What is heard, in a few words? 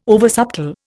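"oversubtle", Indian English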